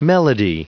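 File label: "melody", English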